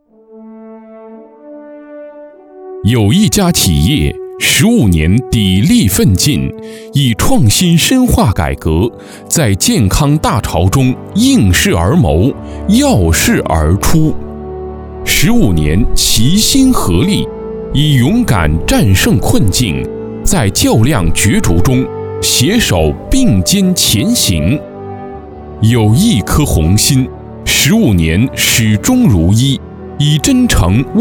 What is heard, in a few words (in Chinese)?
大气浑厚; 瑞祥健康产业集团